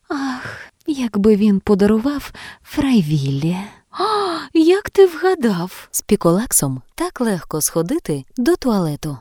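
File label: Ukrainian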